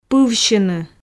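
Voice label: "пывсьыны", Komi, female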